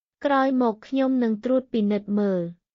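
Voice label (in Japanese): クメール語（カンボジア語）の日常会話基本フレーズ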